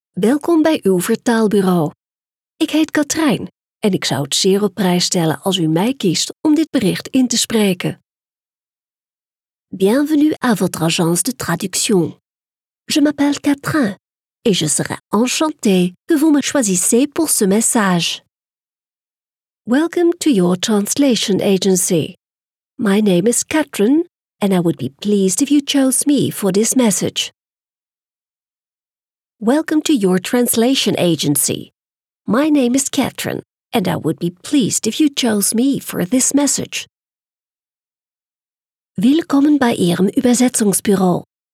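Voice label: Dutch French English German Flemish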